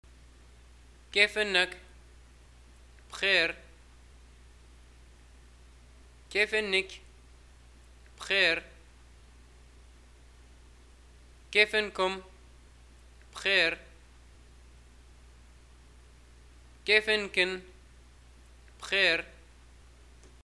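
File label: greeting how are you, Libyan arabic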